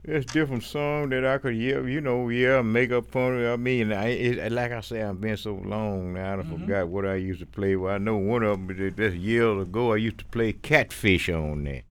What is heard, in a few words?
STORY, SPOKEN